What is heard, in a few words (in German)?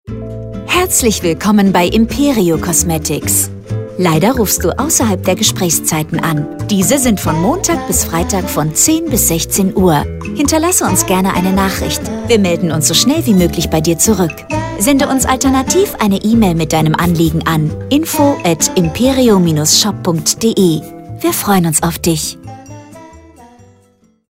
ausserhalb, Telefonansage Kosmetikstudio